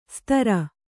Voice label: "ಸ್ತರ", Kannada

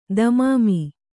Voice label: "ತಮಾಮು", kannada

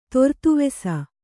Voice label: "ತೊರ್ತುವೆಸ", Kannada

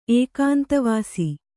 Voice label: "ಏಕಾಂತವಾಸಿ", Kannada

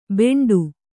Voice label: "ಬೆಂಡು", Kannada

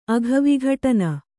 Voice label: "ಅಘವಿಘಟನ", Kannada; aghavighaṭana; noun; expiation of sin

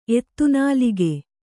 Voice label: "ಎತ್ತುನಾಲಗೆ", Kannada

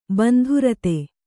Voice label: "ಬಂಧುರತೆ", Kannada

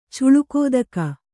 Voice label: "ಚುಲುಕೋದಕ", Kannada

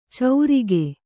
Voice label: "ಚೌರಿಗೆ", Kannada

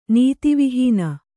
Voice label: "ನೀತಿವಿಹೀನೆ", Kannada

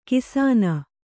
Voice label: "ಘೋಸನೆ", Kannada

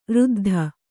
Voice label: "ರುದ್ದು", Kannada

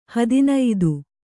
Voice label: "ಹದಿನೈದು", Kannada

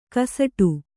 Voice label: "ಗಸಟು", kannada

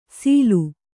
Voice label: "ಸೀಲು", Kannada

